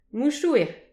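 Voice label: "mouchouer", Breton; petit precis de prononciation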